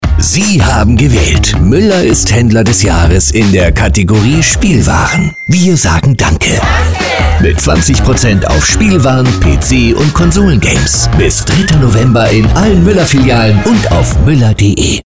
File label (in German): Radiowerbung